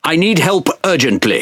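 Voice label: Speech Quick Commands Sos, British English; World Of Warships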